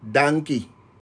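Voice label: "Danki", Papiamento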